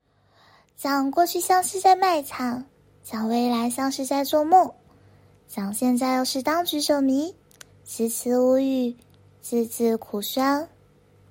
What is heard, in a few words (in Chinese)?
萝莉音